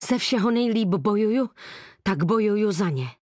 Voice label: vo, cs-cz; akali